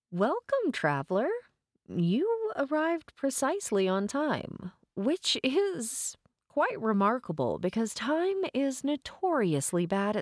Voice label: Sassy game guide explainer